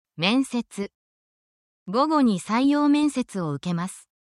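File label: Japanese word, japanese phrases; essential japanese phrases for your workplace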